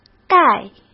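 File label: "盖", Mandarin Chinese; chinese flashcards; hsk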